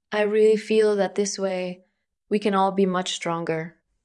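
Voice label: VoiceActing Spanish, female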